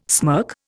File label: "smirk", Indian